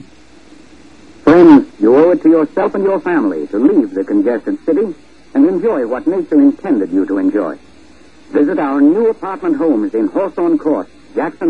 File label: Radio ad; Hawthorne Court